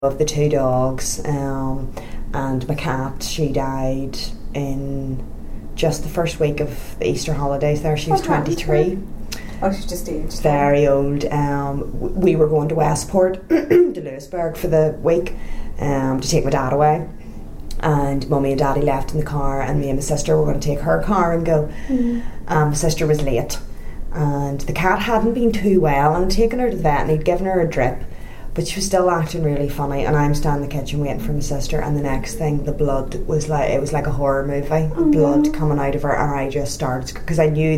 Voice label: Irish English, North of Ireland, interview, Cos. Antrim/Down